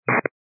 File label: 122 925MHz, scanner radio